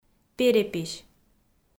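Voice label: "перепись", Komi, female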